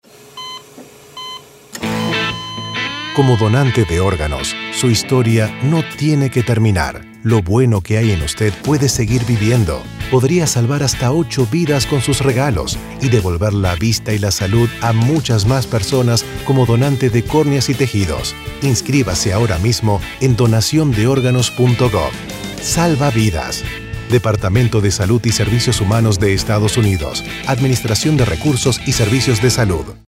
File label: spanish radio; Good In You Radio - 30 second - Spanish; organ donor